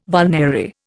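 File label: "vulnerary", Indian English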